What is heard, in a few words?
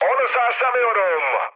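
Turkish aircraft damaged, mood med, speech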